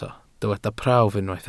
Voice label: "prawf", Welsh

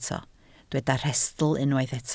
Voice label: "rhestl", Welsh

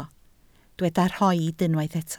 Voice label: "rhoid", Welsh

